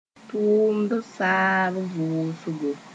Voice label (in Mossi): "tʋʋmd saab vʋʋsgo", moore; retirement